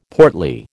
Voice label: "portly", American

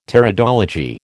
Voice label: "Pteridology", American

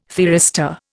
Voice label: "thyristor", Indian

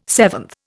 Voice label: "seventh", Indian

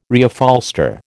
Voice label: "Reupholster", American

